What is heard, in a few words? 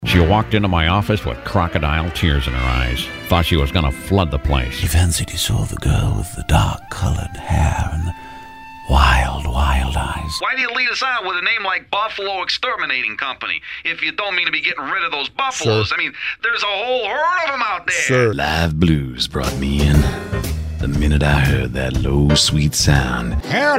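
character male; TaDa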